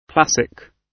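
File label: "classic", British English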